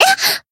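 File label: Voice damaged, Japanese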